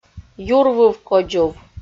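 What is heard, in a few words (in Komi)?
"юр выв кодзув" Komi, female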